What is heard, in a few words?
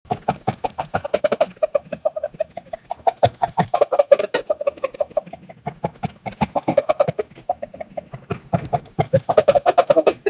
chattering while running